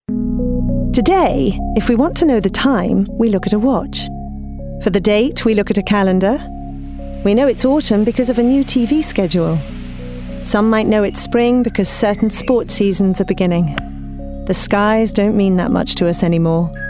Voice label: DOCUMENTARY; Stargates

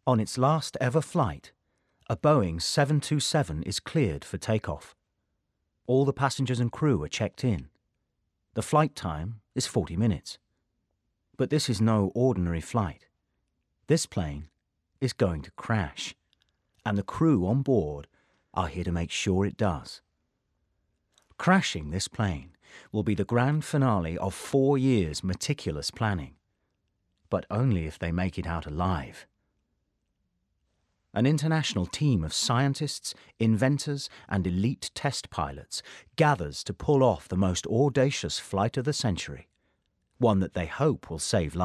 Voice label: Narrative; Plane Crash

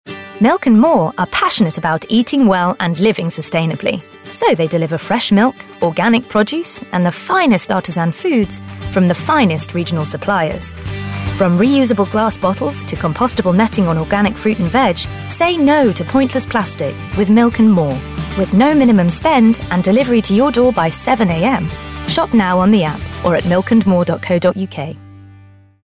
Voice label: commercial; Milk and More

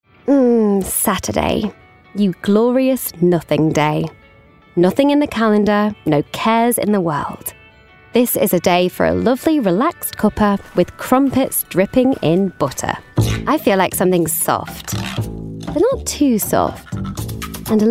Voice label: Commercial

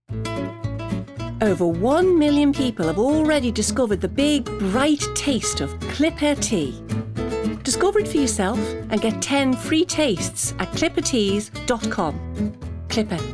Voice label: Scouse; Clipper

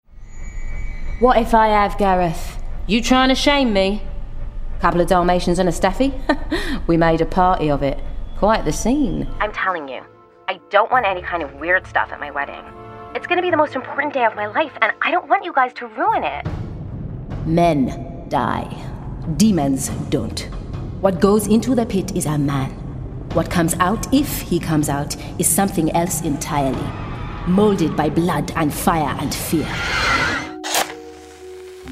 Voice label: Gaming Voicereel

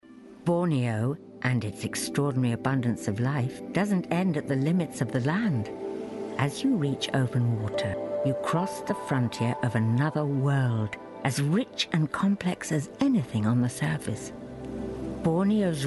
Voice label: Narrative; Borneo

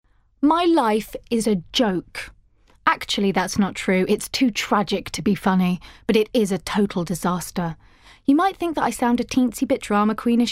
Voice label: Audiobook

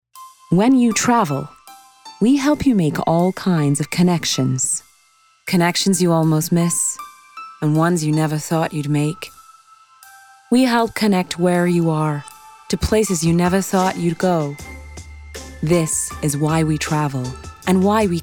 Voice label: US accent; Expedia

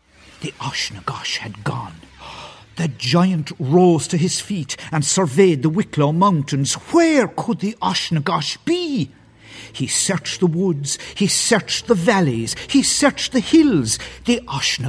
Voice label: narrative

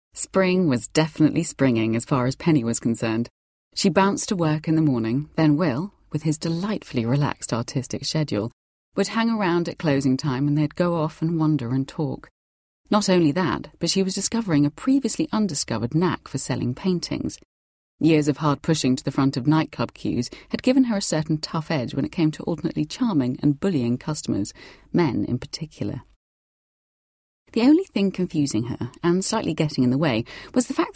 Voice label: narrative

